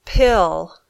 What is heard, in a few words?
"pill", English